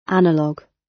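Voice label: "analogue", British English; Time, history; Thời gian, lịch sử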